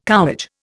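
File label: "cowage", Indian English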